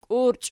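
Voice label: "kiurchi" nominative, Archi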